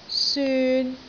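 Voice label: "syun3", Cantonese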